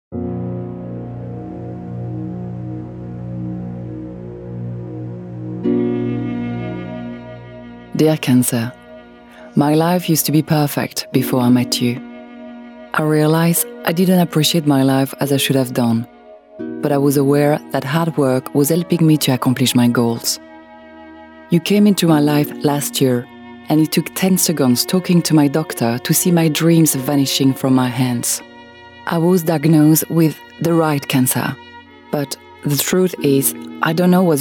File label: English Corporate; NHS